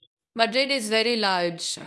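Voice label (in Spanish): Voice Acting, Spanish, female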